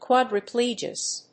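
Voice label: "quadriplegics", English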